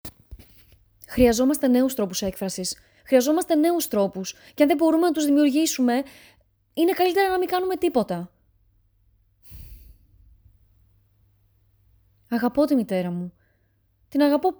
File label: Greek language; The Seagull Anton Chekhov